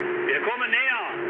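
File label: German near waypoint mood low, speech; War Thunder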